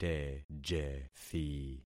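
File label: "tejethi", concatenated syllables; Affixing experiment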